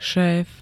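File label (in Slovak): "šéf", Slovak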